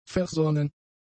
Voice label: "versonnen", German; adjectives